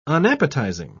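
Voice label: "unappetising", British English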